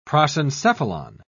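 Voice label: "prosencephalon", British English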